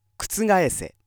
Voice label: male